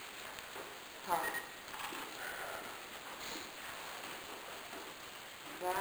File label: "Yes" sound; EVP, or electronic voice phenomena, are said to be the disembodied voices from spirits